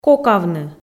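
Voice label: "кокавны", Komi, female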